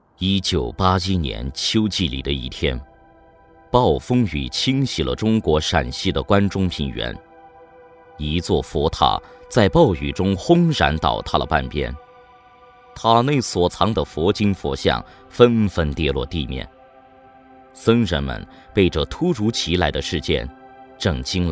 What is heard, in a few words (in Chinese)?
男, 纪录; 法门寺